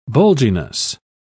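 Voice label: "bulginess", British English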